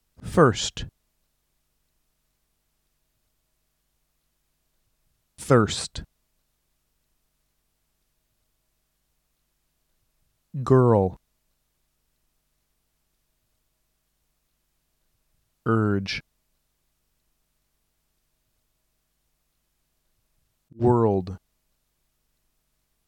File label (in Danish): svaere oevelser betonet oe